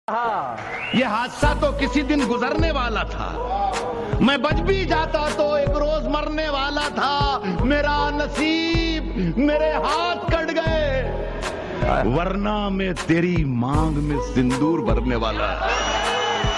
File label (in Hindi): Shayari Ringtone; Rahat Indori